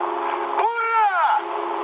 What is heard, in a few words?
player killed enemy, mood med, speech ru